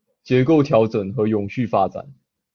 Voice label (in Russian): Голоса, Тайваньский